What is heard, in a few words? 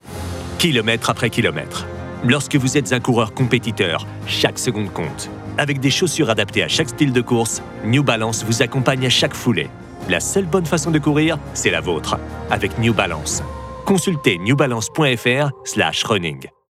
commercial; New Balance